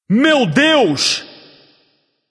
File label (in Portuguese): alert notify kill streak, vox caster portuguese